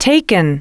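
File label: "taken", English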